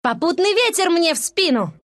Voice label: attack, ru; dota2